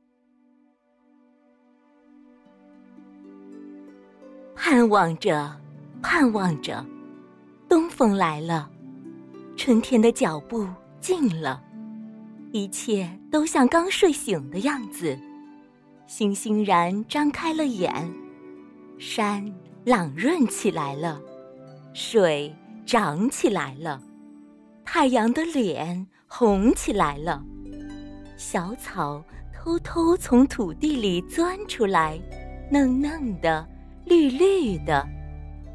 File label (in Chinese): 课件解说